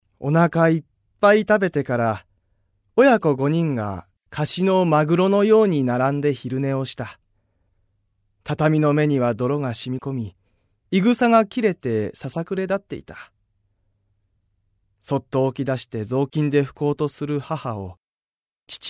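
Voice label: male voice, narration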